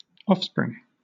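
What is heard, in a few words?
"offspring", British English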